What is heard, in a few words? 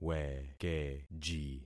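"wekeji", concatenated syllables; Affixing experiment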